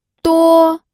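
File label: "duo", pinyin, first tone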